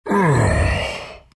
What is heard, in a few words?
treant happy